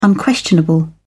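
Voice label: "unquestionable", British English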